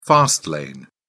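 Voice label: "fast lane", British English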